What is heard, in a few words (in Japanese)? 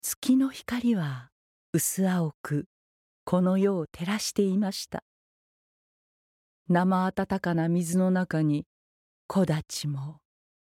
ナレーション